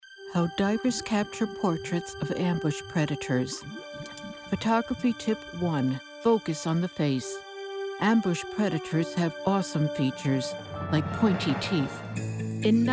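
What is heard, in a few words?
Narration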